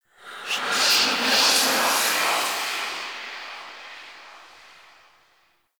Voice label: Whisper; Warframe; Stalker Acolyte

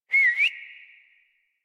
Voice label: Horse Stop Adult; Warframe; Duviri

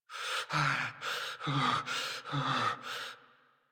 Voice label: Generic Cold Shiver Operator Voice; Operator Mission

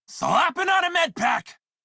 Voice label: Crew Heal Self Male en; Dialog; Warframe; Railjack Crew; Red Veil

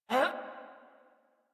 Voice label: Adult Operator Jump, en; Warframe; New War

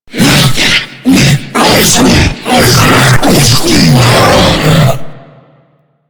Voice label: Boss Speak Combat Taunt; Warframe; Taunts; Lech Kril

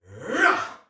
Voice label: Attack, Soldier Male; Sinecure

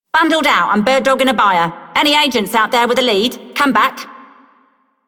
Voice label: Female Radio, Female One, en; Dialog; Warframe; Solaris Venus

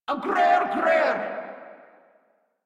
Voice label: Patrol Grineer Female; Warframe

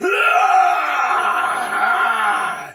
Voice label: Battle Cry Soldier Sword Agent Male; Sinecure

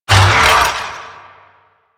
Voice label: Necramech Melee Attack, Barks; Warframe

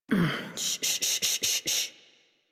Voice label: Horse Calm Adult; Warframe; Duviri

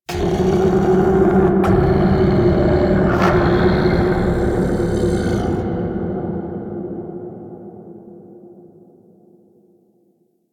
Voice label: Shouts; Entrati; Warframe; Man In The Wall

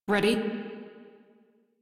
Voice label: Crew Greet, Female; Dialog; en; Warframe; Railjack Crew; Steel Meridian

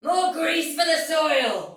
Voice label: See Player Shout Soldier Female, Battle; Dialog; Sinecure

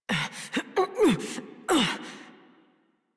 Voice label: Horse Dismount Adult; Warframe; Duviri

